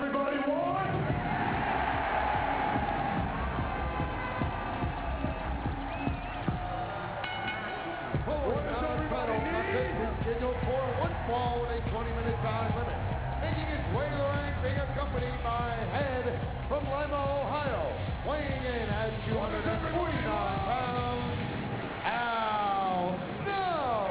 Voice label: voiceover